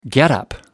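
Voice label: "getup", British English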